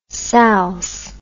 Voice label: "salse", English